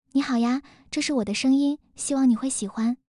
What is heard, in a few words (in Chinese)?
温暖少女, voice samples